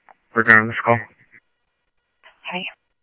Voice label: Mesa Simulcast, MESA PD, scanner audio

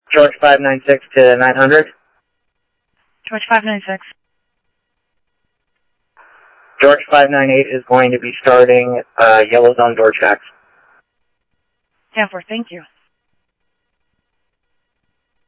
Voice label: Security, scanner audio

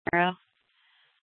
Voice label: Police Department Dispatch, scanner; Mesa; Mesa Simulcast; Fiesta

